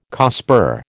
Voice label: "COSPAR", American